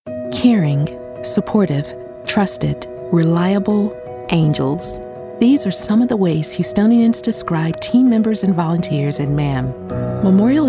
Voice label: COMMERCIAL